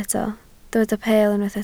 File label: "peel", Welsh